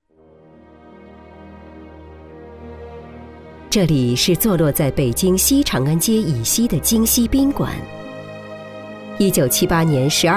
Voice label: 女 政府党政类 讲述 大气稳重; 三中全会

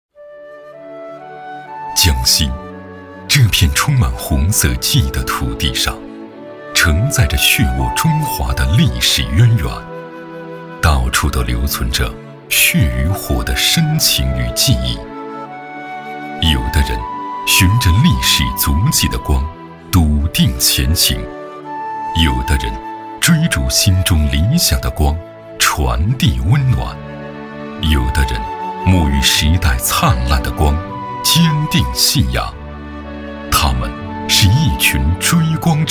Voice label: 男 纪录 党政 片 舒缓深情

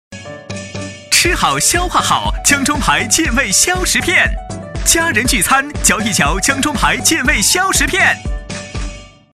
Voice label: 男 TVC; 江中牌健胃消食片

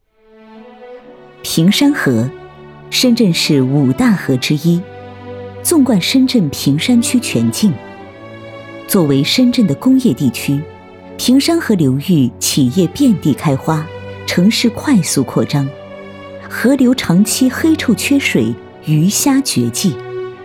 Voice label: 专题 稳重讲述; 坪山河

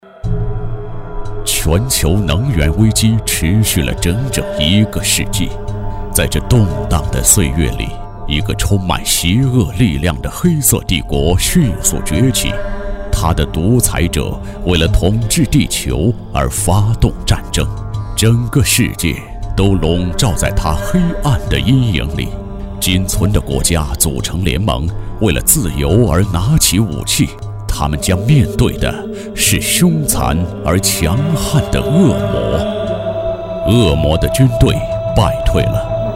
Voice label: 男 预告片

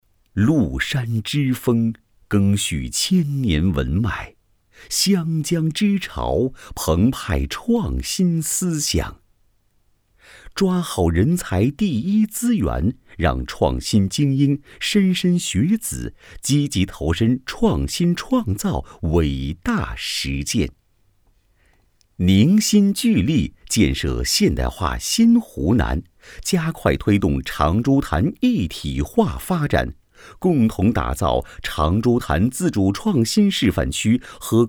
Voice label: 男 宣传片

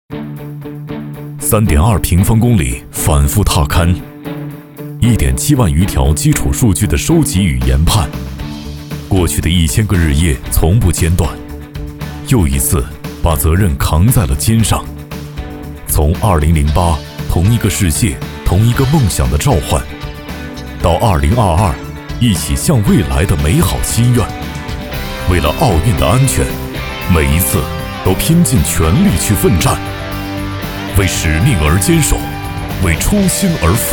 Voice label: 男 走心 有力量感 节奏感强的

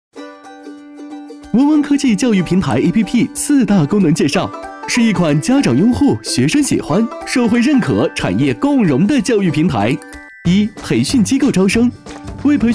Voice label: 男 年轻 动画

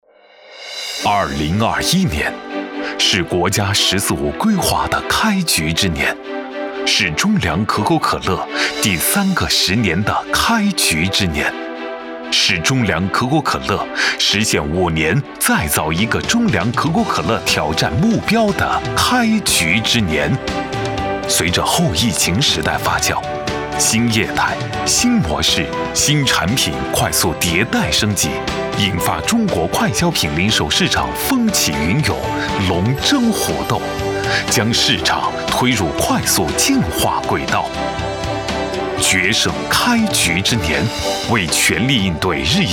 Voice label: 男 大气专题片; 中粮可口可乐

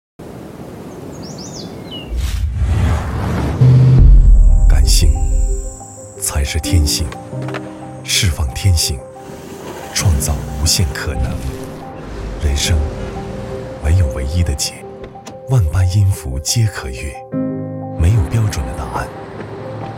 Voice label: 男, TVC; 哈弗酷狗

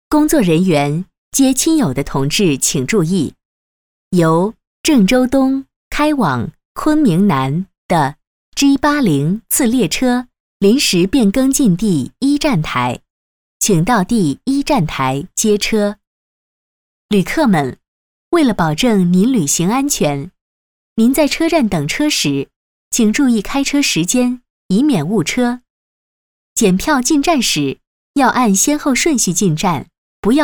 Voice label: 女, 报站 火车到站及提醒

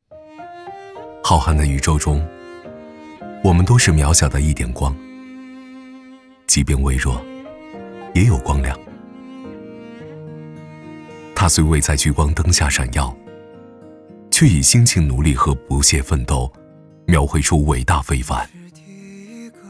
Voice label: 男 独白; 和你一样

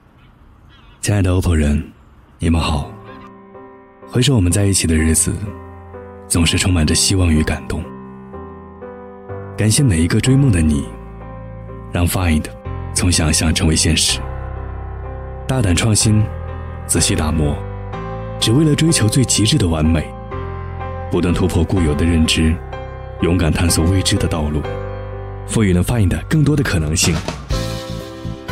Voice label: 男, 高端广告, 首销日; findX3

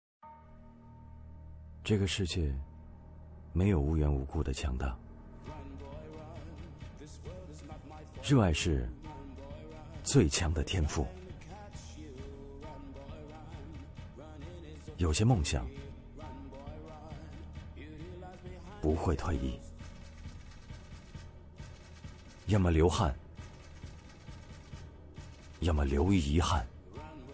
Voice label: 蒙牛宣传短片 配音

